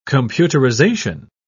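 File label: "computerisation", English, British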